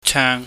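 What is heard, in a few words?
"cheung", Cantonese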